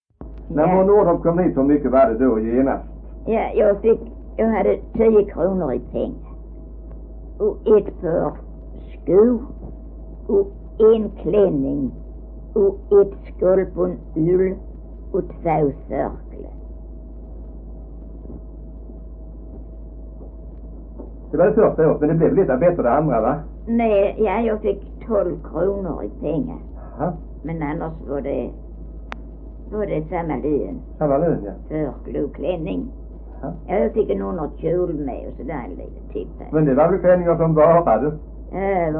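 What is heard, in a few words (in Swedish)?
Äsphult Skåne, dialekter; Folkminnen; Svenska sagor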